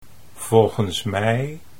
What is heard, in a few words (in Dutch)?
"volgens mij", Dutch